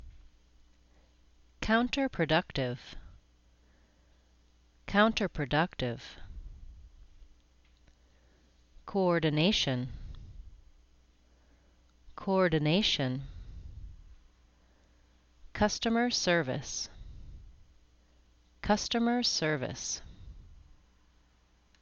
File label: business words syllable